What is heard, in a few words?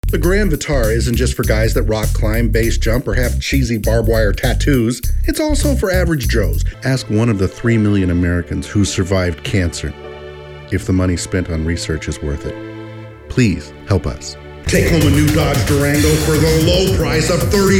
Commercial, Male voices